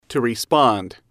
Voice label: to respond, English

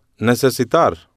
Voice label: "necesitar", spanish

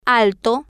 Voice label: "alto", spanish

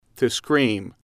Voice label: "to Scream", English

